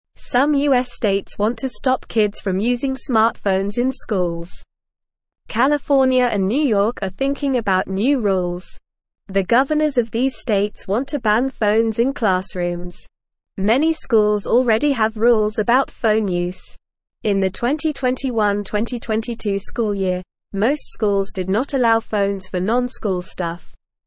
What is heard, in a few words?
English NORMAL Reading; States Consider Banning Phones in Schools to Help Kids